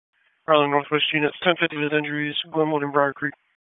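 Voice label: Police Southwest District Dispatch, p25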